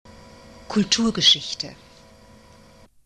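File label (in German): "kulturgeschichte", German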